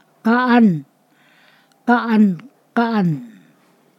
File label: "eat", Ponosakan; imp